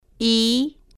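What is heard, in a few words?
"i", spanish, female, slow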